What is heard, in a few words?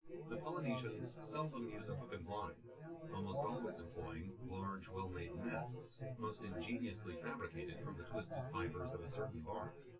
male synth conv